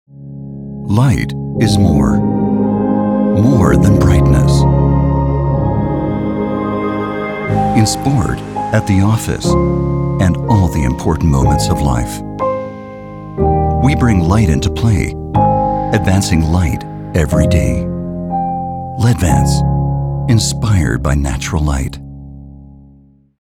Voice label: product film engl; ledvance